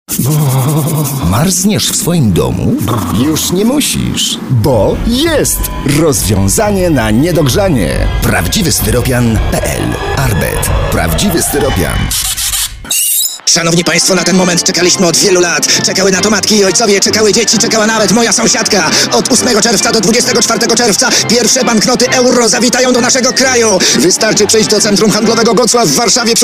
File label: COMMERCIALS